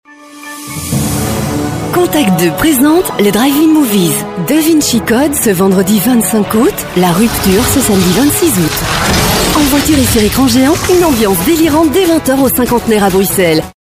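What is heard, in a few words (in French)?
Belgian French, female, commercial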